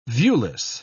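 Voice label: "viewless", British English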